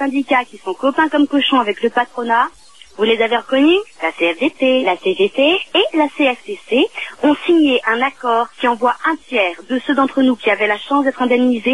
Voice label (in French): Intermittents repondeur